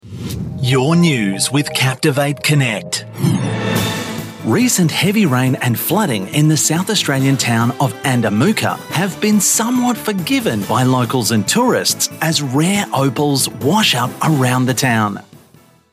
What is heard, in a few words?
News Headlines AU